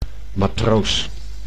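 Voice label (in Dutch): "matroos" Dutch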